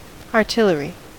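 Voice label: "artillery" English, US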